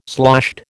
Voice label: "sloshed", American